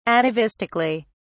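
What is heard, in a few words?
"atavistically", English, uk British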